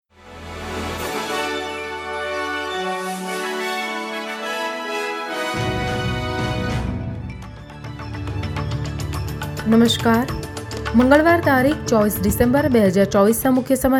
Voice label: gujarati news bulletin; SBS Gujarati Australian update: 24 December 2024